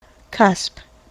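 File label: "cusp", US English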